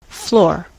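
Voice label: "floor", US English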